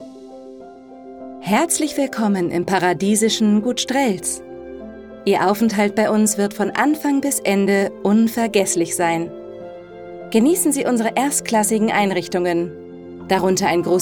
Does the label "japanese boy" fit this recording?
No